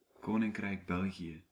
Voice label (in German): Nl Koninkrijk België, niederländisch; Das Königreich Belgien; Staat in Westeuropa